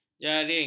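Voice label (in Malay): "jaring", Malay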